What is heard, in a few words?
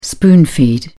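"spoon feed", British English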